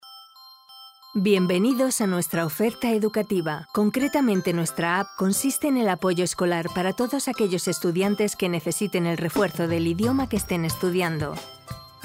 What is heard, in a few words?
spanish commercial